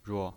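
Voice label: "ruo", Mandarin Chinese pinyin voice, neutral tone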